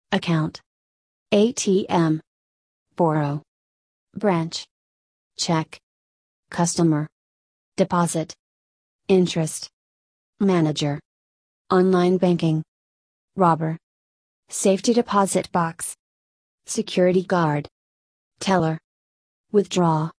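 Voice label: American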